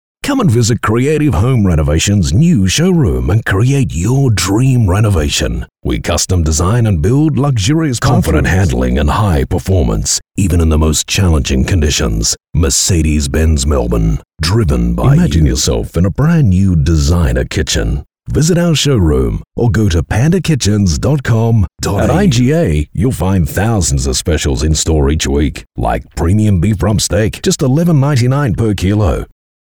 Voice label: Soft Sell